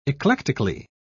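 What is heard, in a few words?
"eclectically", British English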